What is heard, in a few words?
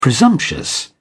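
"presumptuous", British English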